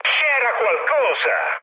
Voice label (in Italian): trick, mood high, speech it; War Thunder